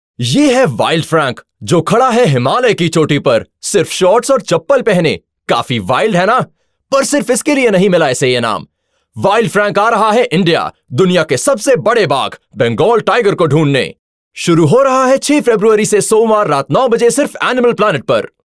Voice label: Hindi; Wild Frank